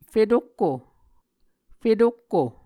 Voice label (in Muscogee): "fetokko", Muscogee; Onvkv Ohhvyayices; A Dictionary of Creek/Muskogee; SIL International